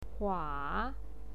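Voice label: "hua", Mandarin Chinese, third tone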